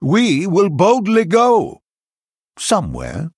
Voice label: Male Human Picked; en